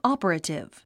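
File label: "operative", English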